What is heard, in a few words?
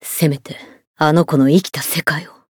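Voice variable chant, Japanese; xianhua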